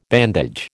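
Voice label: "bandage", American English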